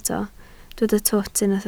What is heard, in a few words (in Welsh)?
"twt", Welsh